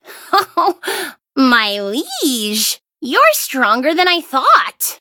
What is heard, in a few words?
defeat, en; Cookie Run